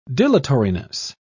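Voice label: "dilatoriness", British English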